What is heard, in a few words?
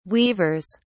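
"weavers", British English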